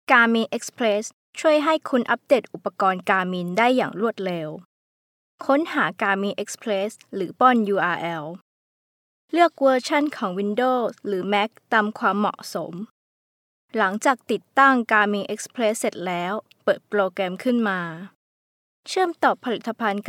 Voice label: thai female

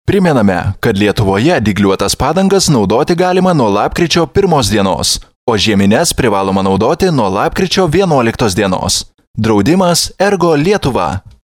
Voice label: lithuanian male

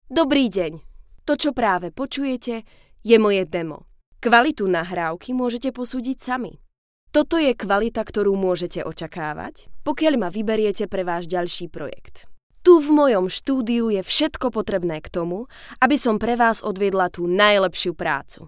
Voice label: slovak female

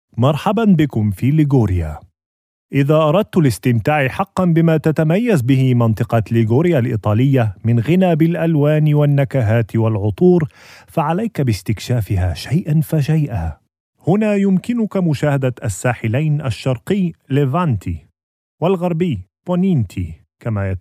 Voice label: arabic male, soundwaves

